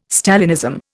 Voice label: "Stalinism", Indian